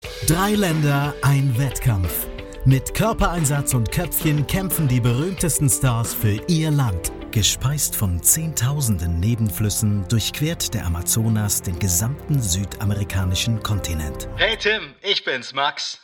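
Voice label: German, General; GoLocalise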